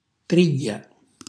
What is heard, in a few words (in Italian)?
"trigghja", Calabrese